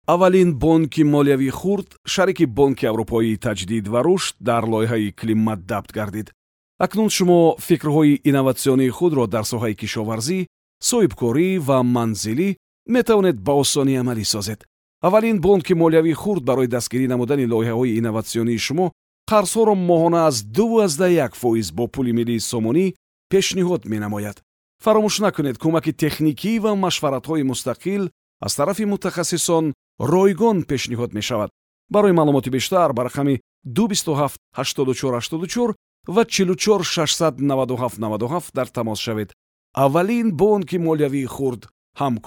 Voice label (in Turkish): Tacikce Erkek, tacikce seslendirme; erkek sesler